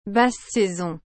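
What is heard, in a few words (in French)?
"basse saison", French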